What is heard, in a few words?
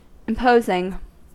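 "imposing", US English; pronunciations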